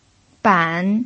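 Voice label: "ban", pinyin, third tone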